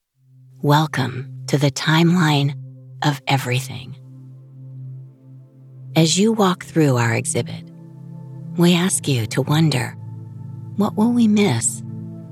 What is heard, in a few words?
english american audio guides; Estados Unidos